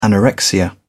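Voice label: "anorexia nervosa", British English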